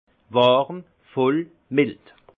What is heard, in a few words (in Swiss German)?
"warm", alsace; vin; moelleux